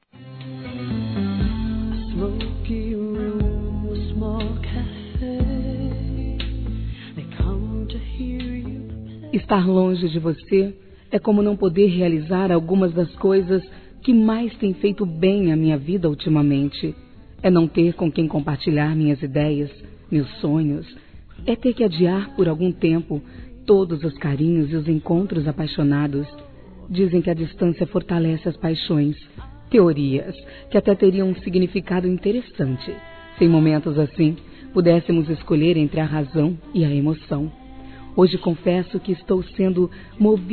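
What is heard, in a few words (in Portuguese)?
SAUDADE ROMANTICO, Feminino; Telemensagens; Saudades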